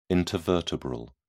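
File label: "intervertebral", British English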